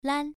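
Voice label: "lan", Mandarin Chinese syllable, first tone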